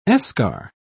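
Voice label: "eschar", British English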